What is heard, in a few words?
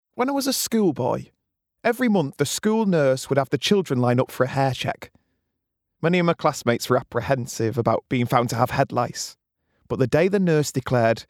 AUDIOBOOK; The Internet is a playground